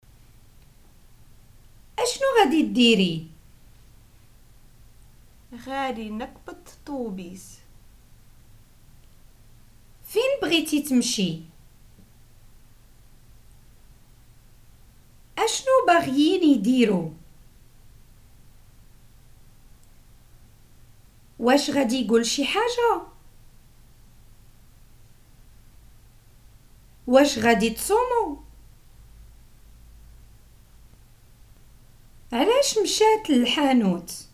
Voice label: prompts, Moroccan Arabic